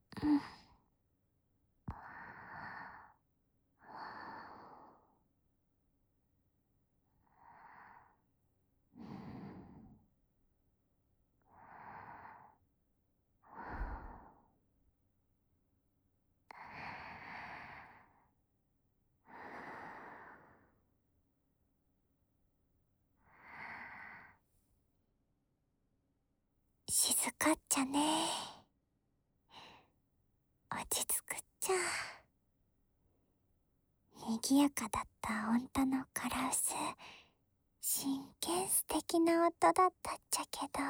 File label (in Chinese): 陪睡進行安眠護理; 諾瓦爾; 蓄音レヱル ノワール; CV.山根綺